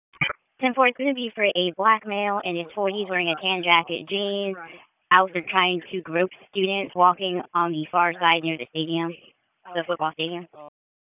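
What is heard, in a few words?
Police Northeast District Dispatch; Raleigh; wake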